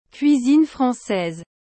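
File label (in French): "cuisine française", French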